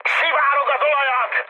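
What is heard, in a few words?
Hungarian damaged oil mood high, speech; War Thunder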